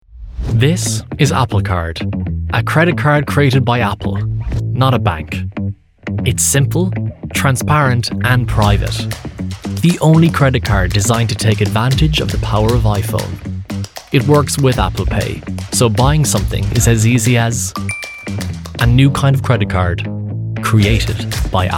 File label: Commercials; 20s-30s; Male; Irish